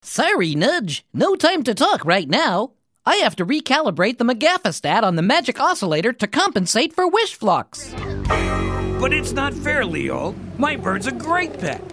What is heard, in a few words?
Character